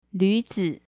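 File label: "luzi" deer, mandarin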